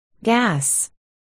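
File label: "gas", spoken word, articulation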